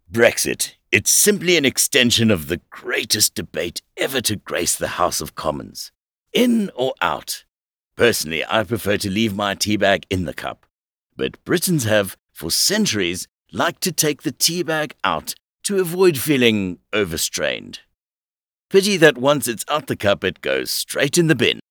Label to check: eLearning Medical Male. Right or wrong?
Wrong